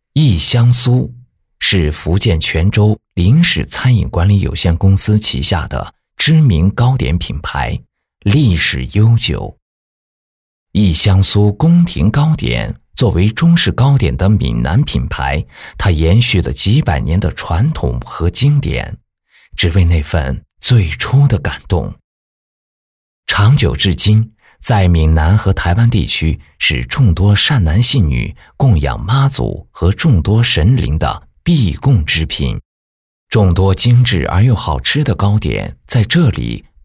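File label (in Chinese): 解说宫廷糕点成品; 忆香酥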